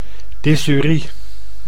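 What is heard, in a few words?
"dysurie", Dutch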